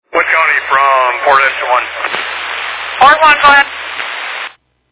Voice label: Fire Dispatch

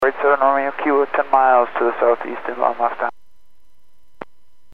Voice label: Aviation 122.7; KSTE

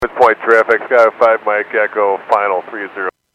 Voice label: Airports 122.7, Radio; KSTE

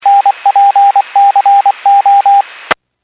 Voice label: Skywarn 146.67; Stevens Point

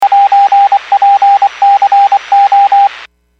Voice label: Skywarn 146.67; Stevens Point

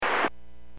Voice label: Aviation 121.5 Emergency

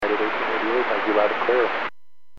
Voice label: Aviation 121.5 Emergency

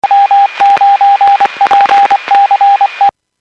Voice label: Skywarn 146.67; Stevens Point

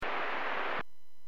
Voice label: Aviation 121.5 Emergency

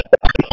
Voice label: Police Sheriff Dispatch

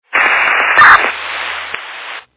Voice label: Fire Dispatch

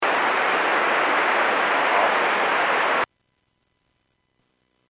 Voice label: Aviation 121.5 Emergency

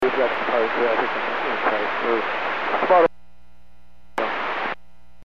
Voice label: Aviation 122.7; KSTE

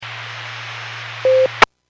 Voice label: Skywarn 146.67; Stevens Point

